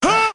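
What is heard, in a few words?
"huh", vocal sample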